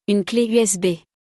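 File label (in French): "Une cle USB", French; vocabulaire; le lexique de l'informatique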